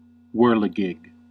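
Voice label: "whirligig" English, US; pronunciations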